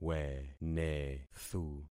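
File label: "wenethu", concatenated syllables; Affixing experiment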